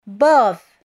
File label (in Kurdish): "Bav", Kurdish